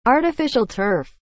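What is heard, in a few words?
"artificial turf", English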